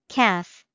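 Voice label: "calf", English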